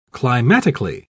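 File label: "climatically", British English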